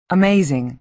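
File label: "amazing", American English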